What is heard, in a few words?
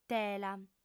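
"tela", Sardinian, female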